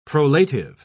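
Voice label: "prolative", British English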